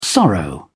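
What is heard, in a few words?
"sorrow", British English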